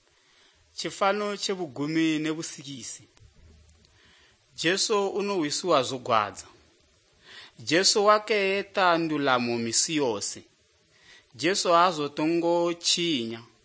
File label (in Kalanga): good news kalanga lilima, drama